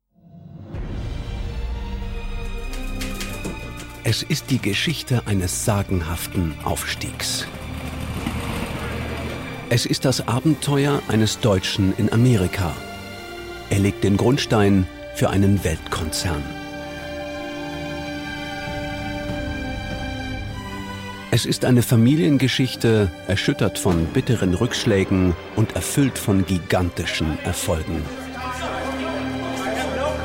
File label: trailer; boeing